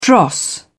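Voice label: "dross", British English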